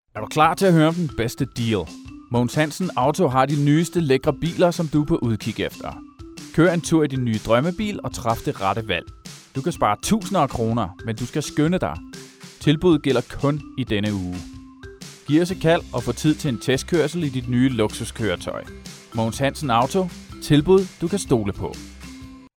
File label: male danish voice over sales